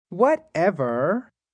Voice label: Whatever, male; Talking